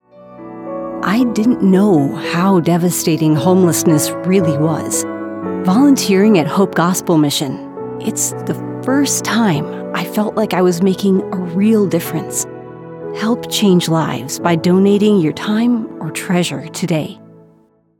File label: Commercial VO; Hope Gospel